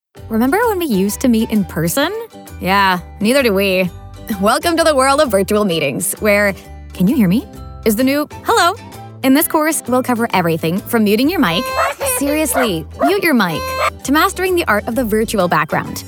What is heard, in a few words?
eLearning